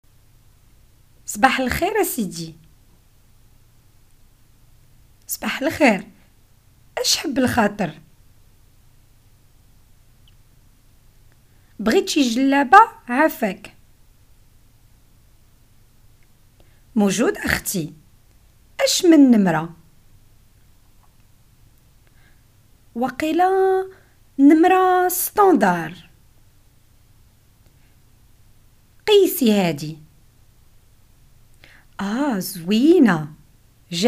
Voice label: Moroccan Arabic dialogue bargaining